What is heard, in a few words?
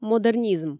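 "модернизм", Komi, female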